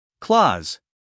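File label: "clause", American English, male; everyone-can-use-english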